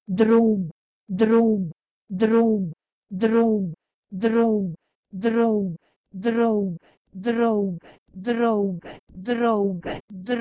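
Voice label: Proto-Indo-European dhroughm to Persian doroog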